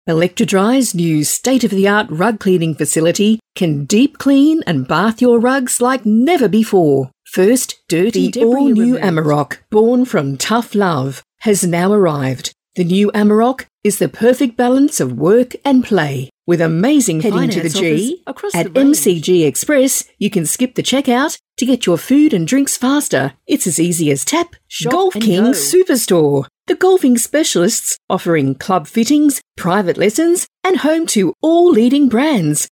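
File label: Hard Sell, voice-overs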